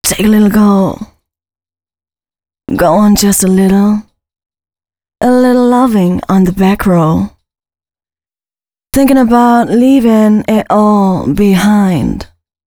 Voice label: female spoken hooks, dance ultra voice